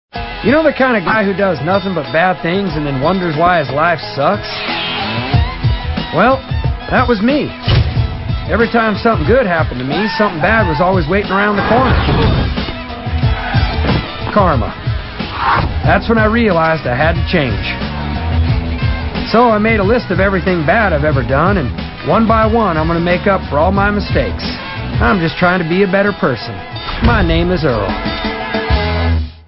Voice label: narration; My Name Is Earl